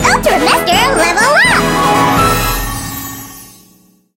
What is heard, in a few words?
levelup master, en